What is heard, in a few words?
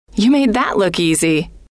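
you made that look easy, female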